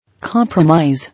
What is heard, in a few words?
"Compromise", English